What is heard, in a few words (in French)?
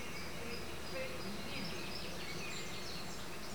voix ni beau